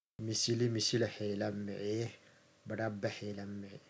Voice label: afar audio, Afar language

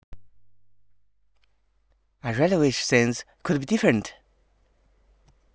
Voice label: emotion

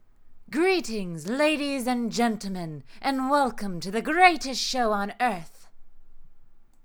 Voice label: female speech

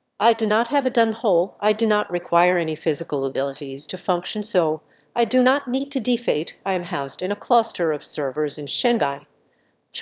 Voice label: emotion; SpeechGPT

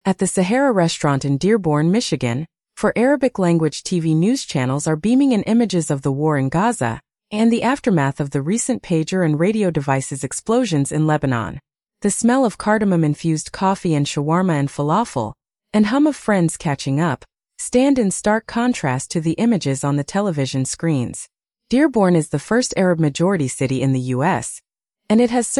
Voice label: Nice English Ref

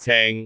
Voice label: "ceng6", cantone; google B

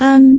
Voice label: "aan1", cantone

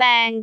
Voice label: "beng3", cantone

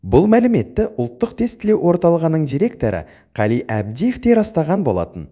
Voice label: happy

